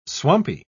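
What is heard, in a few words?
"swampy", English word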